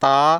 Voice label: "ta", consonants